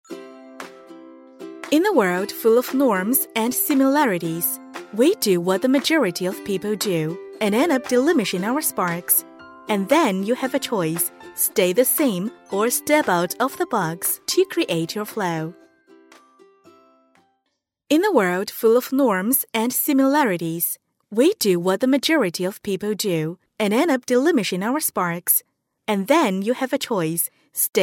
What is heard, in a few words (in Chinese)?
女 英文 年轻活力